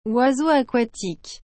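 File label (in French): "oiseau aquatique", French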